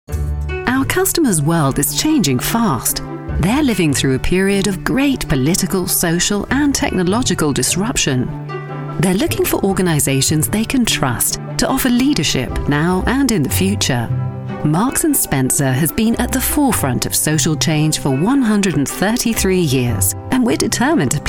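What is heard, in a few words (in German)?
British English Freundlich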